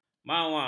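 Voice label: "mawar", Malay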